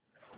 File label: Call Recordings